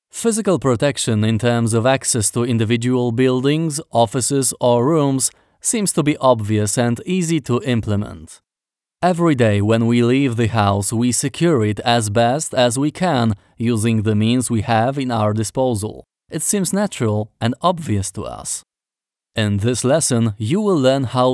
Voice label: security e-learning